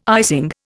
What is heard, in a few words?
"icing", Indian